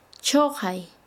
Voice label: Chanka, quechua; arrojar

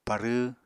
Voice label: "parww", Apatani

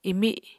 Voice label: "imi7", apatani

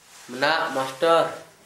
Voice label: headmaster, Gta, Dialect: Hill; mnaʔ masʈoɽ

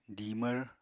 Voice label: "dimvr", Apatani

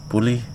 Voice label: "many", Wahgi; lae unitech

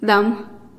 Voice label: "dam" owl, teotitlan

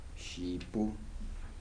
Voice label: "skirt", Kibembe

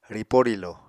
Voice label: "ripó-rílo", Apatani

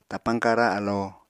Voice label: "tapañ kara alo", Apatani

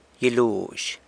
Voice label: "gyiluzh", San Lucas Quiavini; pepper tree

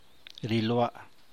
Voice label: "rindiag", San Mateo Macuilxochitl Zapotec

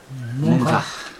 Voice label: "tomorrow", Chug

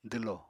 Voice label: "dwlo", Apatani

